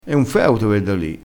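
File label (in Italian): "feuto", vocabolario dialettale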